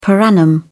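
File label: "per annum", British English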